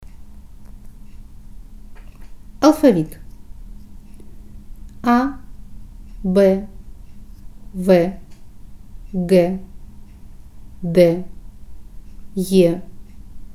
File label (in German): Russ Alphabet